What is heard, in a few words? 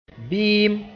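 "bɩɩm", Mooré; soup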